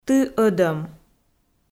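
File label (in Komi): "ты ӧдӧм", Komi, female; kpv; audio dict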